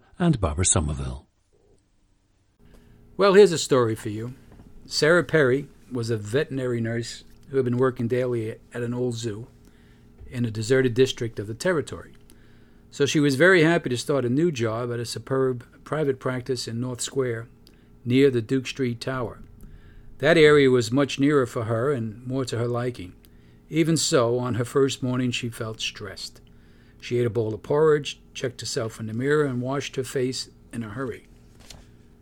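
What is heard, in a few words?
new york, International Dialects of English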